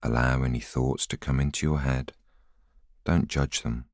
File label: voiceover